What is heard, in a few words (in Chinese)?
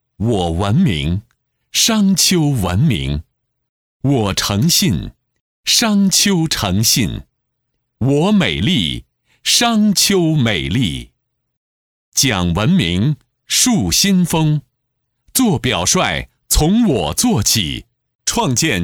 男 彩铃; 商丘彩铃